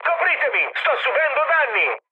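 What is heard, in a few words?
Italian aircraft damaged mood med, speech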